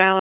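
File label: Raleigh Police North District Tactical; wake; p25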